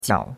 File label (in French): "jiao3", chinois; Cours-de-Chinois